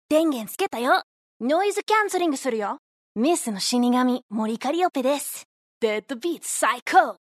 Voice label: JPN; Mori; aviot